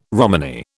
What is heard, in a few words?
"Romany", British